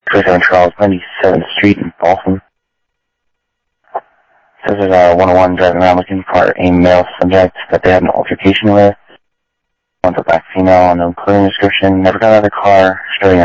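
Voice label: Sheriff East County, scanner audio; ThompsonPeakScot; MCSO - EAST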